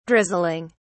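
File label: "drizzling", British English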